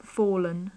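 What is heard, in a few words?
"fallen", British English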